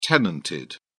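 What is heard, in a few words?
"tenanted", British English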